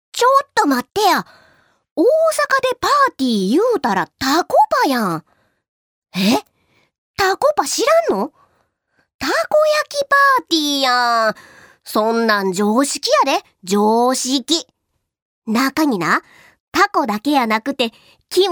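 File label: seikaku female fushigi chan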